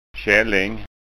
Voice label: "kalling", skanska

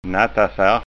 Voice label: "nattasark", skanska

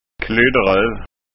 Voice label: "klydderov", skanska

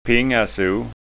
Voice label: "pingaso", skanska ord

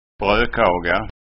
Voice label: "brokaga", skånska

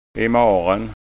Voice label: "imaren", skanska

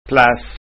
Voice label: "plass", skanska ord

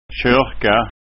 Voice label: "korka", skånska ord

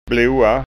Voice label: "bloa", skånska

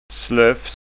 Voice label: "slofs", Skånska

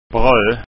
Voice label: "bro", skånska

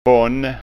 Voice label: "bonn", skånska

